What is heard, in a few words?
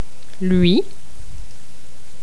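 "lui", French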